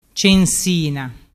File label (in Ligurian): "censina", dialettu